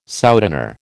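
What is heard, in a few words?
"souteneur", American English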